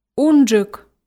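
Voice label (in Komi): "унджык", Komi, female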